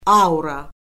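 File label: "aura", Azerbaijani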